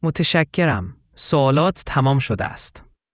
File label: persian